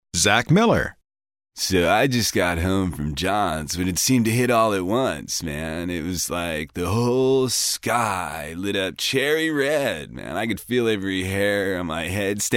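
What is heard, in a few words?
hippie, voice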